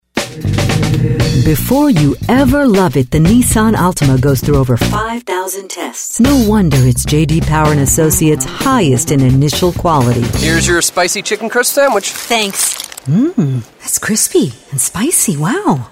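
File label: commercial English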